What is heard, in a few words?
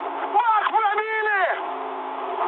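tank damaged, mood high, Russian; War Thunder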